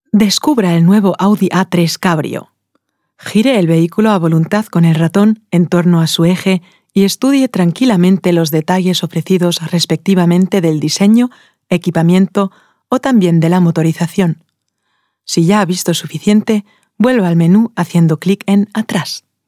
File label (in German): spanisch elearning